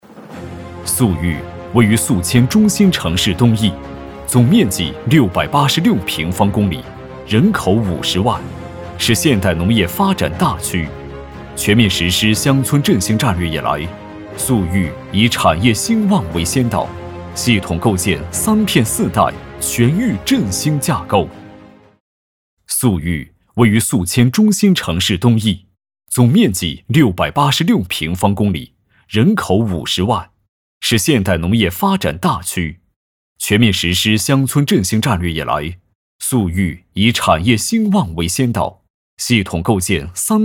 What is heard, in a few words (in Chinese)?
男 城市宣传; 宿豫